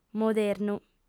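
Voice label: "modernu" Sardinian, female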